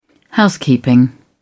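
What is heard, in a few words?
"housekeeping", British English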